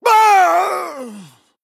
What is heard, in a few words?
Male Troll Death